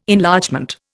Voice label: "Enlargement", Indian